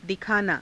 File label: "dikhaanaa", Hindi